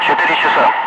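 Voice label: Russian speech, Ka-50 Wingman; DCS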